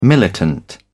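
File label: "militant", British English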